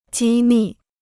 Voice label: "机密", Chinese

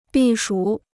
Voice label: "避暑", Chinese

